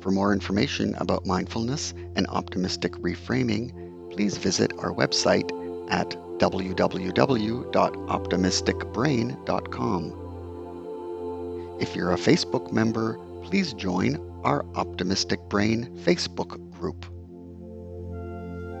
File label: Releasing Negative Beliefs Meditation with Music